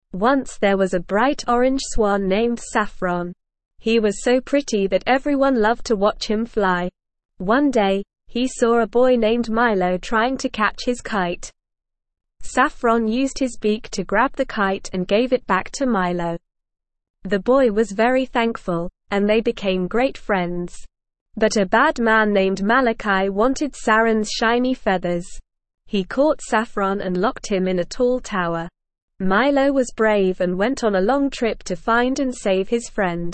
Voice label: ESL Short Stories for Kids Lower Intermediate NORMAL Reading; The Saffron Swan